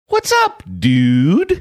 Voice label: saying what's up dude funny